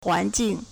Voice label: "huán jìng", Chinese